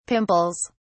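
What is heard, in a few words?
"pimples", British English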